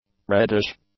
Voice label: "reddish", English; adjectives

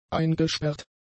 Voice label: "eingesperrt", German; adjectives

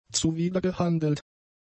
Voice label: "zuwidergehandelt", German; adjectives

